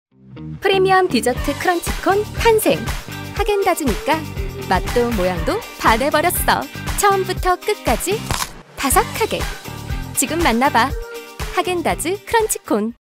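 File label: Korean, female, commercial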